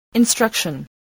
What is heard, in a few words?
"instruction", voice spelling